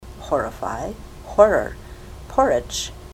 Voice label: horrify horror